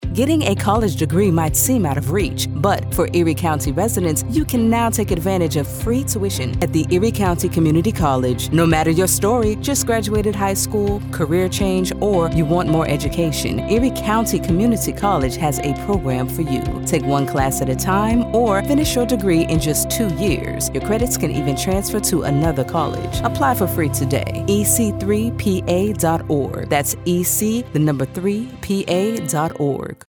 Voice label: Spot, vocal talent